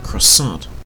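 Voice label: "croissant", Canadian English; pronunciations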